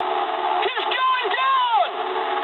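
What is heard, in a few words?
aircraft destroyed mood high, speech English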